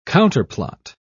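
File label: "counterplot", British English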